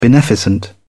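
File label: "beneficent", English, British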